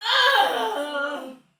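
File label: Patrol Spoken Soldier Female; Dialog; Barks; Battle; Sinecure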